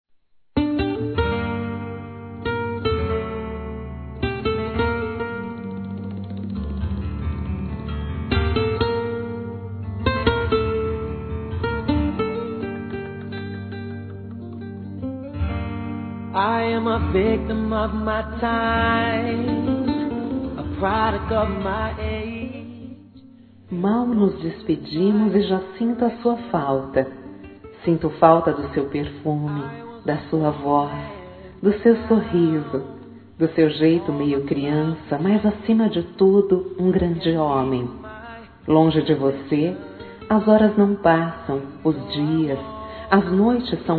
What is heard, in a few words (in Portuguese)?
Volta Logo Feminino; Dia Dos namorados; Telemensagens 2017